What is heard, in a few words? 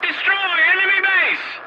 voice message attack enemy base, english; War Thunder